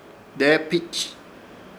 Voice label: "Deepich", Ishir Chamacoco; lugar de seremonia

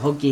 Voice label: "chicken", Chug

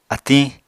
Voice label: "atiñ", Apatani

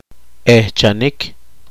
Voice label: poqomchi; apropiar

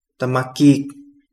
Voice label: young, Kapingamarangi

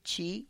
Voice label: "txi", when

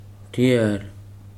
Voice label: "we", Weri

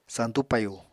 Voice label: "santú payu", Apatani

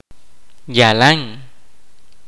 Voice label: kaqchikel; too much

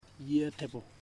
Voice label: "three pigs", Ipili

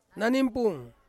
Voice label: "nanimpung", Ansus; grass

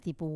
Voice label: "tapu", to hug, Wamesa

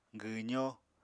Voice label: "gwwzó", Apatani

